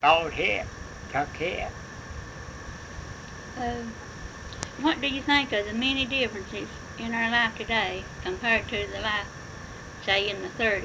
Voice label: Oral history with male and female Pine Ridge Kentucky, american english dialect